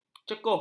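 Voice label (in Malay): "cekuh", Malay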